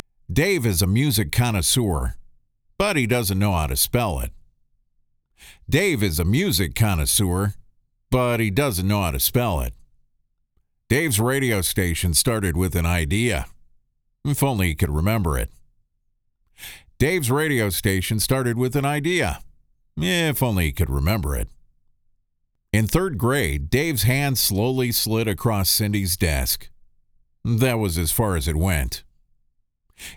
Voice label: Character VO; imaging